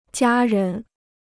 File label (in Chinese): "家人", Chinese